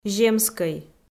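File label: "земскӧй", Komi-Zyrian, female; audio dict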